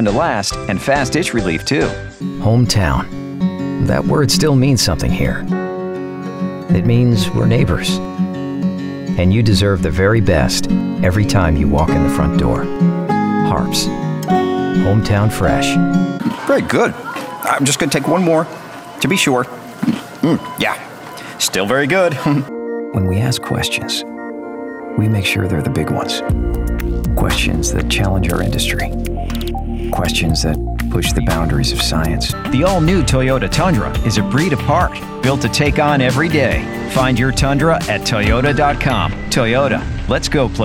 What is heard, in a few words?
Voice Over Commercial